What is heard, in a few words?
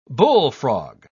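"bullfrog", English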